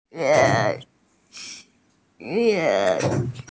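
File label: "yun2", Mandarin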